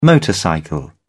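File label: "motorcycle", British English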